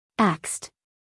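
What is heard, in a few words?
"axed", American English, female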